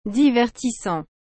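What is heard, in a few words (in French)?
"divertissant", French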